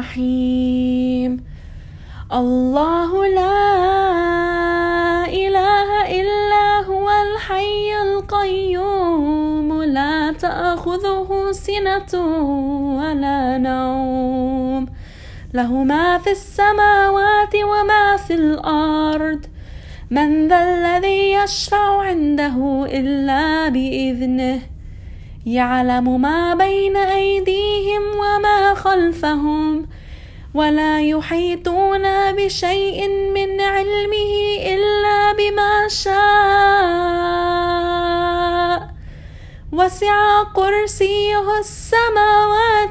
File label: mujawad